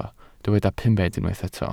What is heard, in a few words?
"pumed", welsh